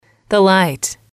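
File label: "the light", English, female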